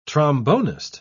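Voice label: "trombonist", British English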